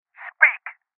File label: Voices Interrogate Male